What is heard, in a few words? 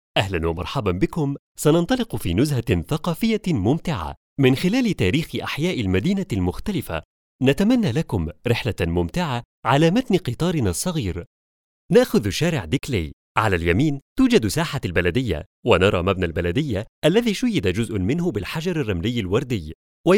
arabic